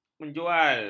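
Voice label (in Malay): "menjual", Malay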